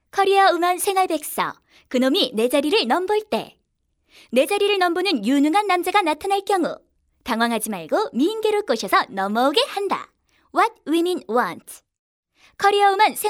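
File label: Korean female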